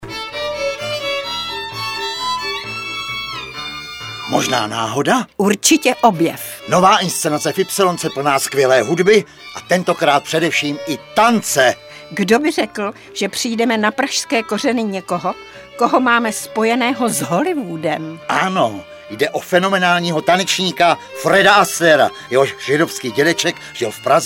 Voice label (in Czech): radio spot